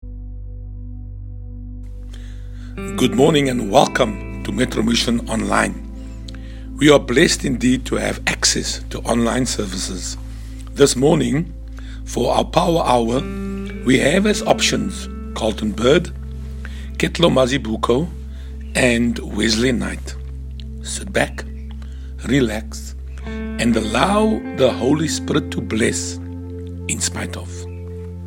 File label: Intro w. music; Power Hour